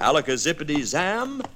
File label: "ala kazzipity zam", g-rated swears; Voice; expletives